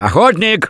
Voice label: Warn Hunter, russian; Gambler